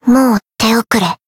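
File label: Voice finish, Japanese; nagi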